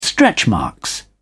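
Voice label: "stretchmarks", English, British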